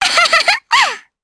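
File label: Vox Happy Japanese; Estelle; kingsraid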